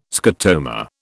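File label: "scotoma", British English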